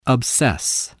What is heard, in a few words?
"obsess", voice, spelling